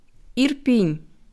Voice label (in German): "Irpin", ukrainische Aussprache